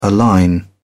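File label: "align", British English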